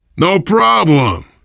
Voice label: you are welcome, voice, biker